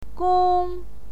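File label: "gong", Mandarin Chinese, first tone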